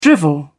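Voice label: "drivel", British English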